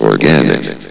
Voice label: "organic", vox